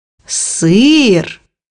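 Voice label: "cheese", Russian; bukvar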